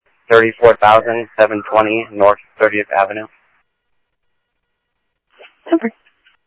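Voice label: Police Department, scanner audio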